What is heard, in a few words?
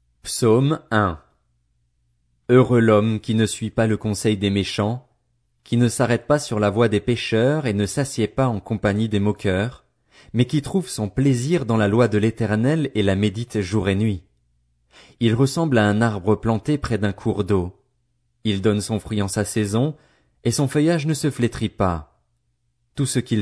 french psalm